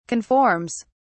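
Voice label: "conforms", British English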